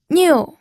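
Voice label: "niu", fourth tone, pinyin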